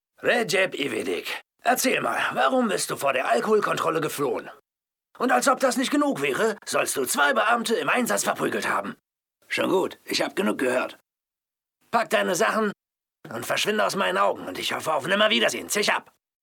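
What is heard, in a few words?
german male character; voice demos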